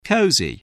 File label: "cosy", voice spelling